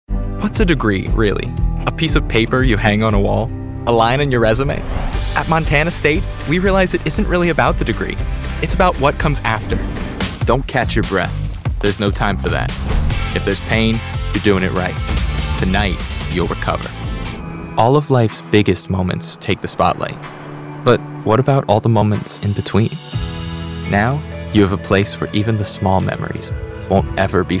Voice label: 20s Male Commercial